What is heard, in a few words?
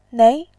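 "nei", Mandarin, tone 2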